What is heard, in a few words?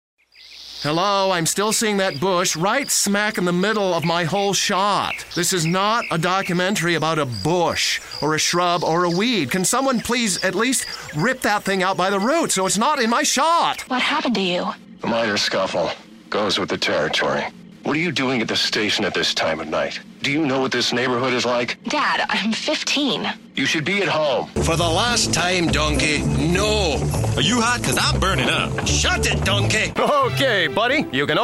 Animation